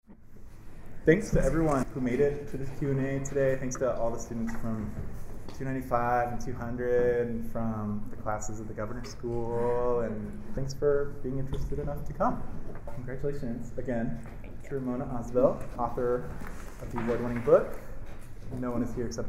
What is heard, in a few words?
conversation; first novelist